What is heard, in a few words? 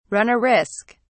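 "run a risk", English, British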